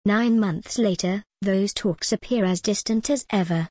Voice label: en gb nine months later those talks appear as distant as ever